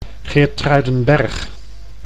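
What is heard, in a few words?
"Geertruidenberg", Dutch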